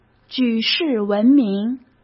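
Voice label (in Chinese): "举世闻名", Mandarin Chinese; hsk; chinese-flashcards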